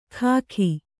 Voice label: "ಖಾಖಿ", Kannada